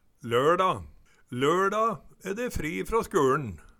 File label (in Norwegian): "LøLda", Numedalsmål